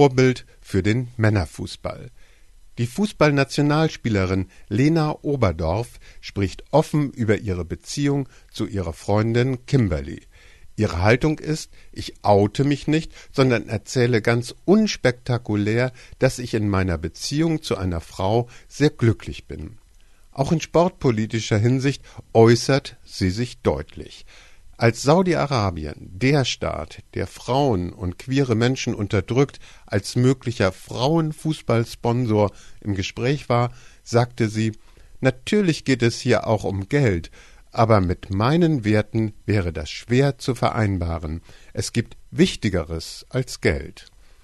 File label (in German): Kommentar; Frauenfussball